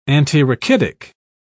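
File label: "antirachitic", British English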